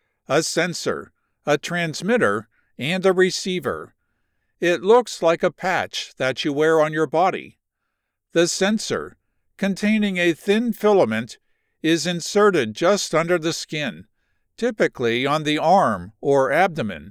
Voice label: Med devices glucose monitoring, science medical narration